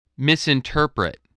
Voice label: "misinterpret", voice, spelling